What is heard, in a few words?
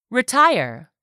"RETIRE", english; 3000 words